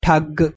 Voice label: "thag" cheat, Punjabi, F